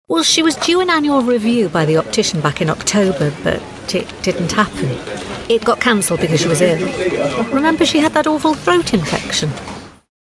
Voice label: case study (vox pop)

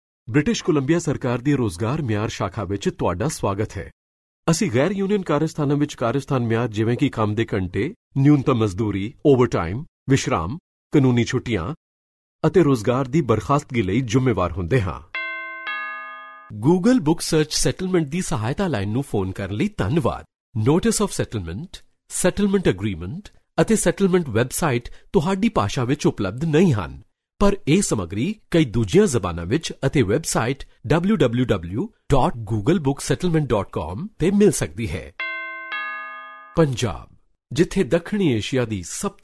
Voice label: punjabi male punjabi narration

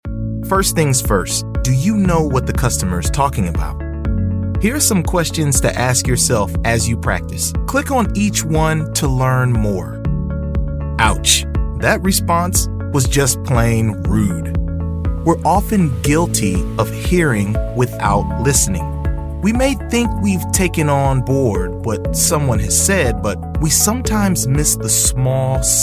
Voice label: american voiceover e-learning

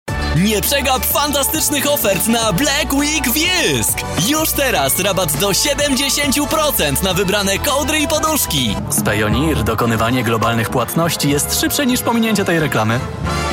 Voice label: polish voiceover commercial

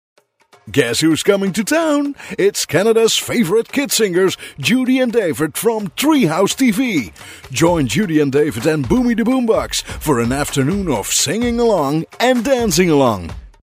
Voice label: dutch voice english with accent

